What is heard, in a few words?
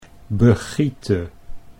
"begiete", Dutch